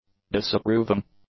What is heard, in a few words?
"disapproving", English; adjectives